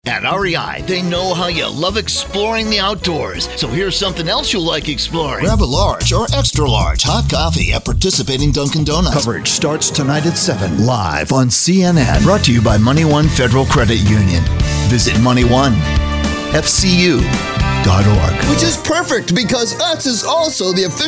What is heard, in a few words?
Voiceover Commercials